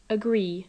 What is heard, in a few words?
"agree", english